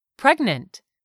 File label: "PREGNANT", English; 3000 words